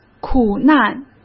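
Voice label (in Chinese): "苦难", Mandarin Chinese; hsk; chinese-flashcards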